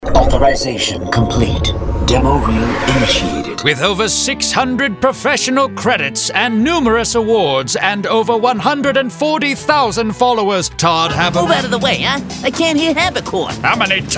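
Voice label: animation men character, voices men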